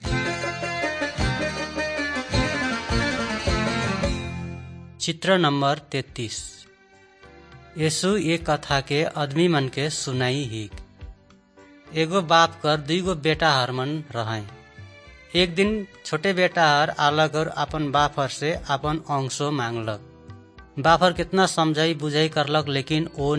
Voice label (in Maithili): good news maithili, nt drama